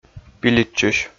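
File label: "пилитчысь", Komi, male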